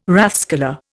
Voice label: "rathskeller", Indian